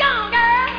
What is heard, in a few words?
GIRL